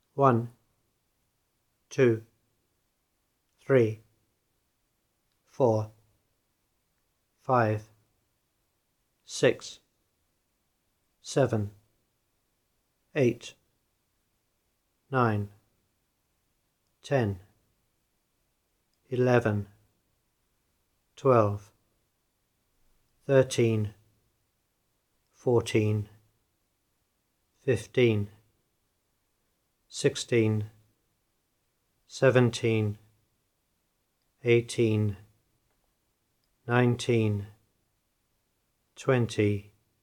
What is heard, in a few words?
Numbers Male